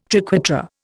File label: "triquetra", Indian